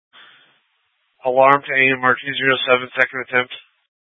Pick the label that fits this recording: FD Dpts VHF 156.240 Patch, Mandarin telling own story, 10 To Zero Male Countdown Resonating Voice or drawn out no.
FD Dpts VHF 156.240 Patch